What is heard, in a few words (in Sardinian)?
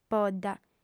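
"podda", female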